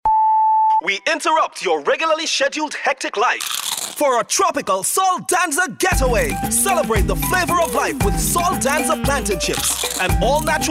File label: commercial corporate trinidad tobago voice